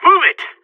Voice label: radio lets go; Source Model Packs; Counter Strike Model Pack; CS2; seal